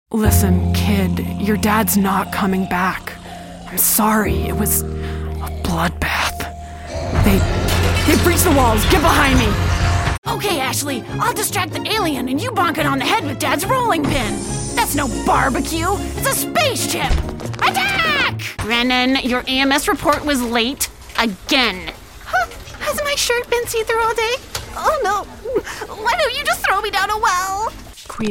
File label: animation voiceovers